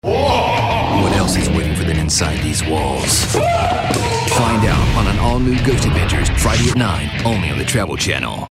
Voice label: imaging